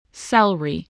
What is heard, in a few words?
"celery", spelling